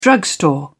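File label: "drugstore", British English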